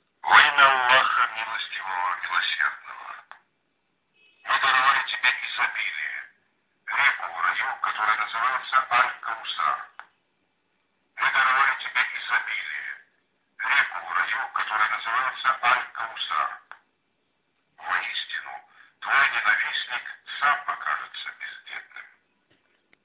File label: Russian